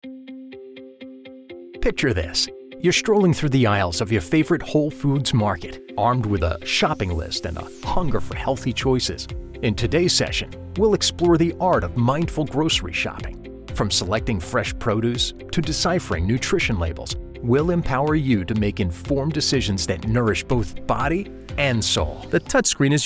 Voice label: eLearning